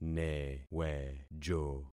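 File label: "newejo", concatenated syllables; Affixing experiment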